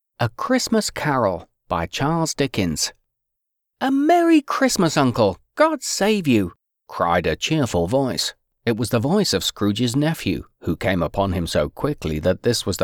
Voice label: Audiobooks Fiction